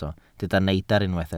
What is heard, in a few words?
"neidr", Welsh